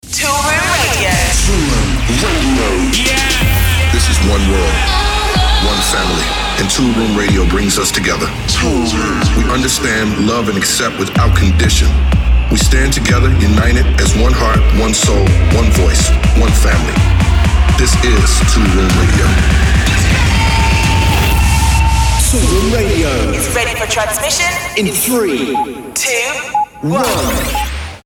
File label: Radio Intro; Toolroom